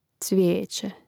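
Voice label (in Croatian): "cvijeće", Hrvatski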